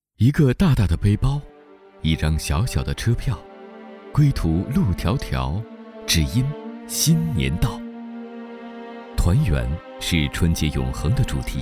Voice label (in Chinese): 男 纪录片; 世界杯; 幸运金球